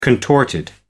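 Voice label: "contorted", British English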